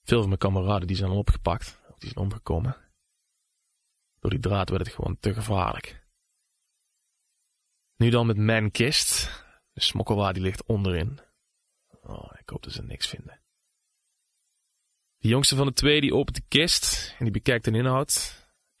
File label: male dutch voice over talent character